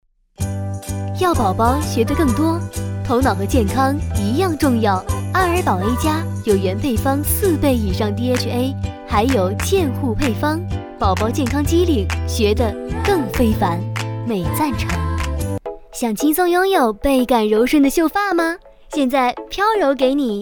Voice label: 广告样音奶粉

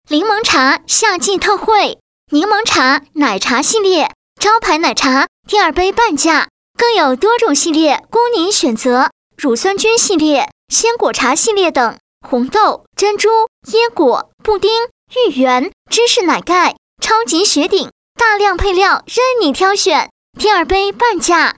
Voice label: 促销柠檬茶

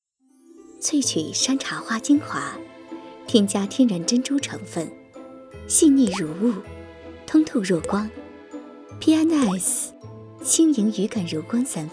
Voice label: 广告

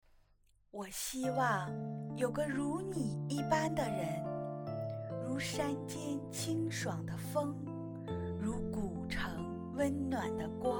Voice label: 情感美文晚安电台

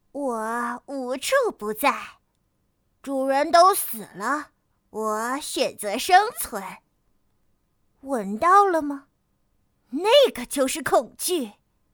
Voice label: 正太邪恶

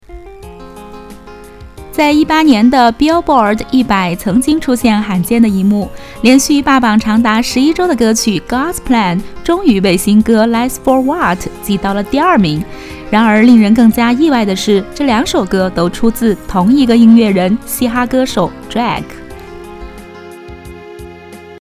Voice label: 短视频解说 女播

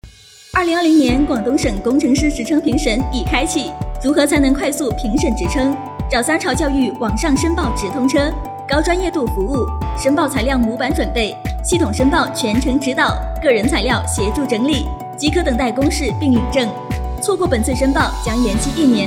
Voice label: 广告音频; 职称申报条件

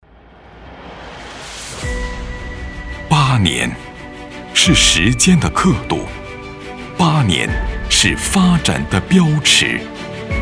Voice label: 物流 宣传片 深沉 宏伟 大气 豪迈; 德邦物流

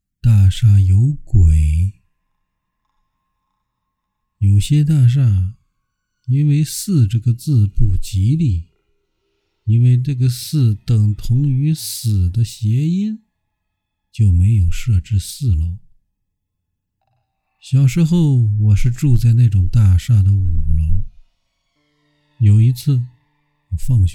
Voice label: 撞鬼样音

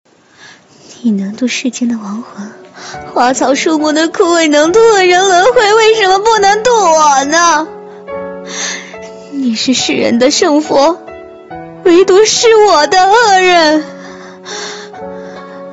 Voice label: 配音

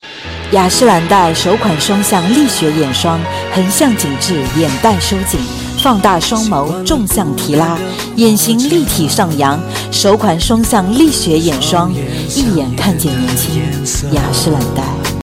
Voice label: 广告

